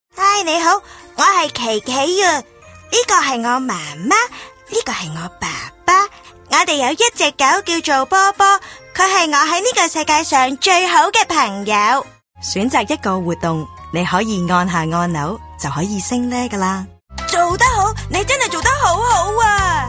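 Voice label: Canto toys, Cantonese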